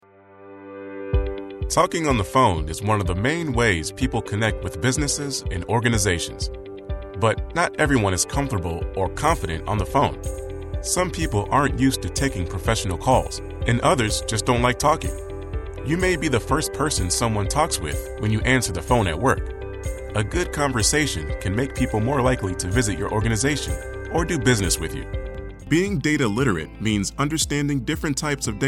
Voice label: english american corporate videos